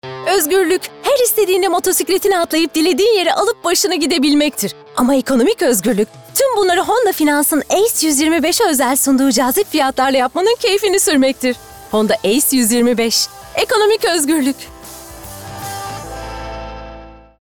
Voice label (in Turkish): Neseli